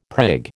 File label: "prig", American